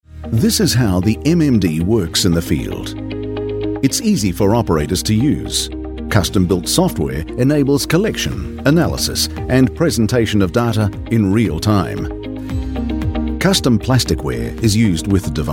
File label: explainer